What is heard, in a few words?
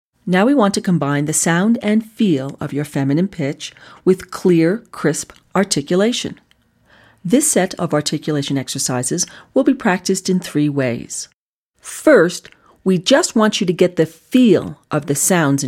Voice Fem